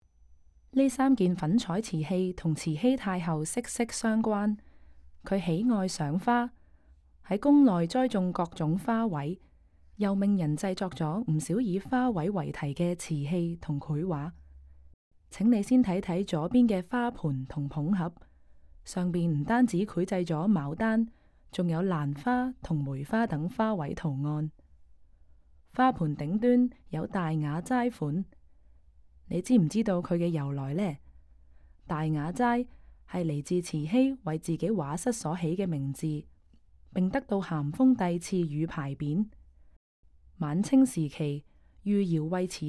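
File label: Cantonese, audio guide; g3-clay-to-treasure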